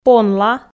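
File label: "понла" Komi, female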